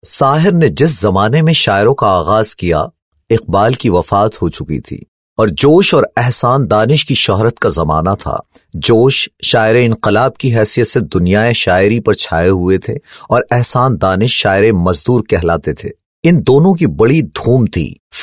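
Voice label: Urdu Narration, male voice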